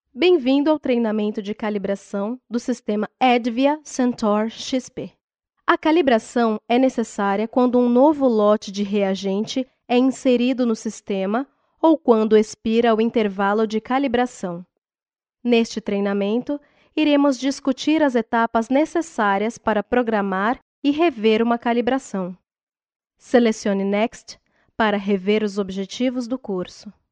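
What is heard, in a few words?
Portuguese Brazil, female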